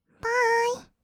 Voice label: バーイ, kawaii voice; ASMR; 挨拶; 1200 kawaii voice!!

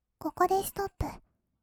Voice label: ここでストップ, kawaii voice; ASMR; 色々なワンショット

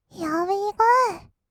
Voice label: "ひあうぃーごー", kawaii voice; 音ゲー

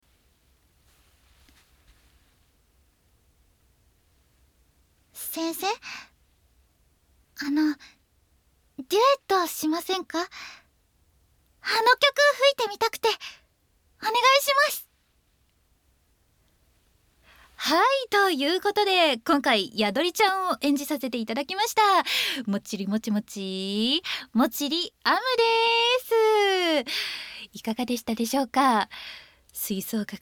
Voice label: フリートーク; ASMR

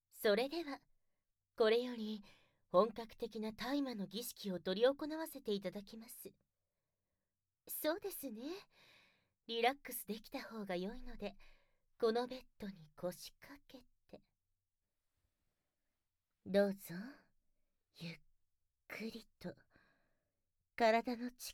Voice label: シスターの囁き・悪魔の囁き（効果音なし）

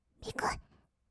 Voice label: "びくっ", kawaii voice; 1200 kawaii voice!!; 色々なワンショット

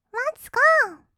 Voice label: "ワッツゴー", kawaii voice; ASMR; 1200 kawaii voice!!; 音ゲー

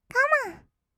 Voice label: カモン, kawaii voice; ASMR; 1200 kawaii voice!!; 音ゲー

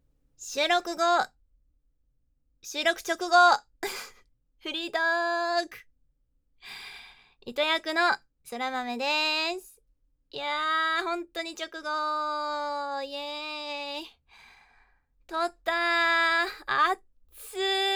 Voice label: フリートーク; 収録直後

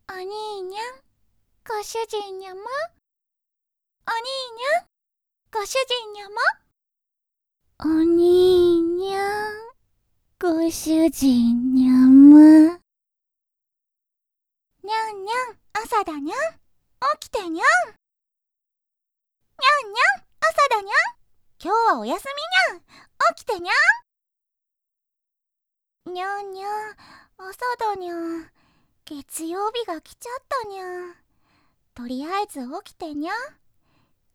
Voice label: にゃんにゃんボイス

